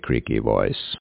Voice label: creak, voice qual